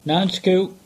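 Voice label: "Nancekuke", English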